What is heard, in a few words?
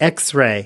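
"x ray", American English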